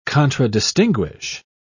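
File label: "contradistinguish", British English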